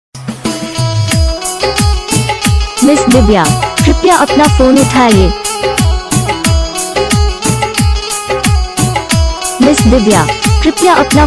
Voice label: divya name ringtone divya ji apke jaan ka phone aaya hai